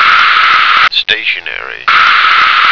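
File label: "stationery", American English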